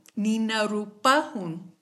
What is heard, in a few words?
fire, kichwa